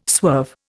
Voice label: "swerve", Indian